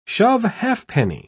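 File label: "shove-halfpenny", British English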